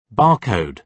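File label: "bar code", British English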